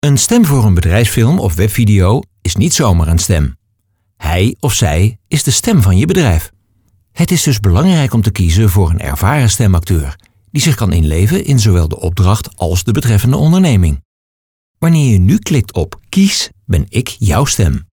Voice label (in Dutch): BEDRIJFSFILM